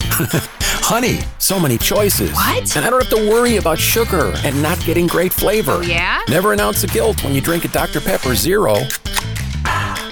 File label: Commercial